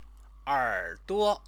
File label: "erduo", Chinese; 耳 朵; ear; noun; Chinese lessons